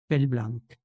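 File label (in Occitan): "Pel blanc", Languedocien; Prêts pour l’Òc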